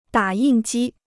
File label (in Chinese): "打印机", Chinese